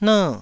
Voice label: "ner", Taiwanese